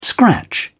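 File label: "scratch", British English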